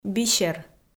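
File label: "бисер", Komi, female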